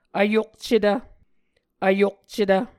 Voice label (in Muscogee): "vyokcetv", Muscogee